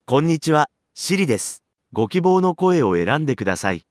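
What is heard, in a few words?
Japanese, Japan; iOS Sounds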